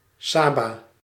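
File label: "Saba", Dutch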